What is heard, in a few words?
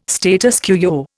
"status quo", Indian